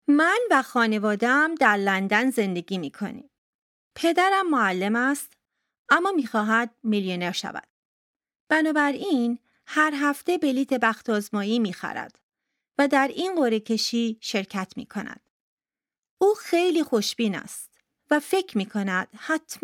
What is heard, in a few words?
text farsi